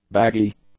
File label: "baggy", English; adjectives